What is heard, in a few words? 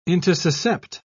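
"intussuscept", English, uk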